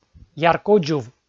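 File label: "яр кодзув", Komi, male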